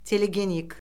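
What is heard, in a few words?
"telegenik", Azerbaijani; Orfoqrafiya Lüğəti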